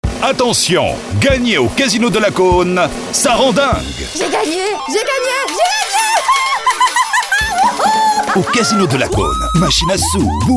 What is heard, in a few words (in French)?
radio spot